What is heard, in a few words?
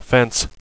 fence, radio bot; cstrike